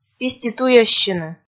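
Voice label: "пиституйӧссьыны", Komi, female; kpv; audio dict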